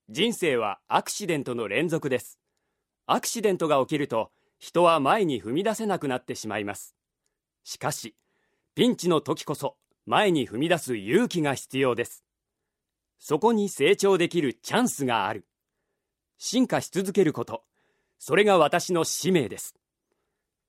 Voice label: narration